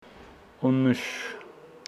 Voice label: Homshetsi have